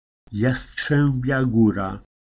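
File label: "Jastrzębia Góra", Polish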